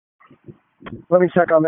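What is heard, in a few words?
FIRE Operations, p25; wake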